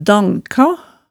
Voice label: "danka", hemsedalsmaalet tale

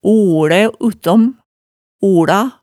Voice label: "olæ uttom", Hemsedal

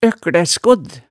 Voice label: "økklæskodd", hemsedalsmaalet tale

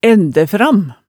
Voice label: "ændæfram", hemsedalsmaalet tale

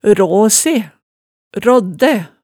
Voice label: "raa se", Hemsedal

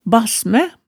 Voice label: "bassmæ", hemsedals maalet tale

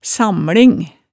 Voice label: "samling", hemsedalsmaalet, tale

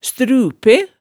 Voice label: "strupe", hemsedalsmaalet

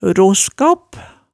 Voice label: "roskaap", Hemsedal

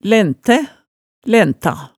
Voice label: "læntæ", hemsedalsmaalet tale

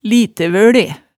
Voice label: "litevøle", hemsedalsmaalet tale

